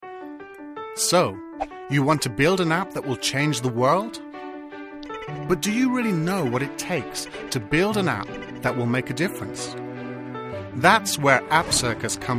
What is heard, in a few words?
ad